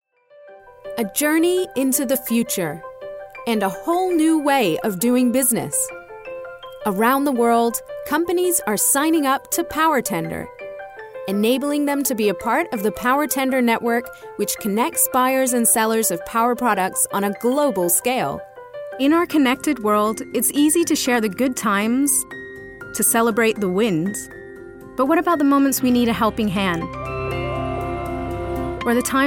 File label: CORPORATE